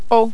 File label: "o", french